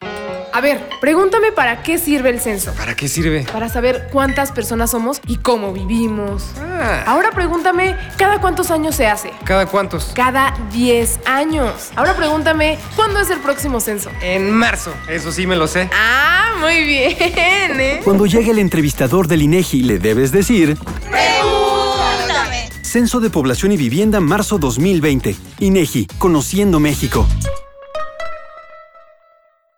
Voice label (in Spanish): SPOT DE RADIO